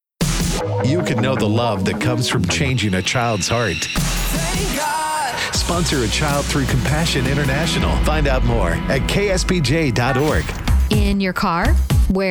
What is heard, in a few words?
Imaging